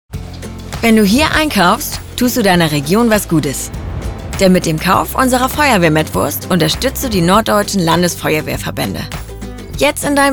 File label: Online Commercial Hamburgisch; Edeka